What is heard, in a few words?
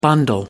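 "bundle up", British English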